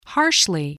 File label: "harshly", English, spelling